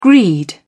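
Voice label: "greed", British English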